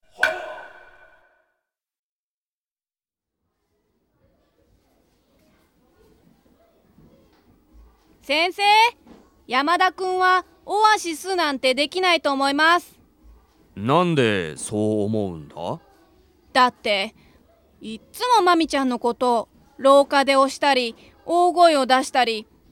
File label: dialog; JapanesePod101; Beginner Season 1